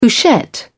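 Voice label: "couchette", British English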